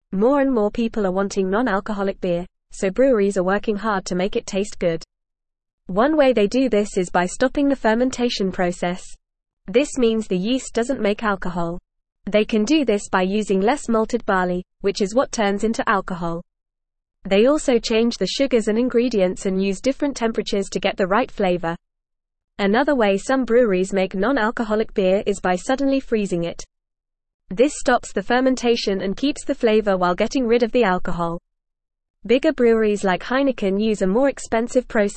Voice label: English FAST Reading; English Newsroom; Innovative Brewing Techniques Meet Rising Demand for non alcoholic beer